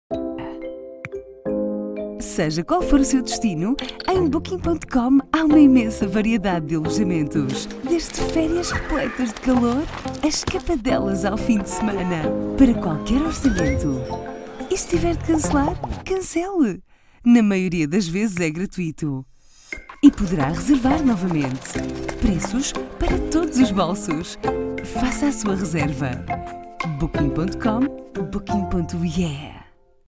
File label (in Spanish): Portugues Portugal Femenina Adulta